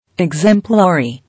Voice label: "Exemplary", English

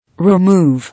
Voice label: "Remove", English

